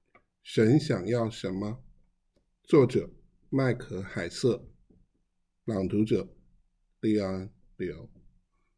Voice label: chinese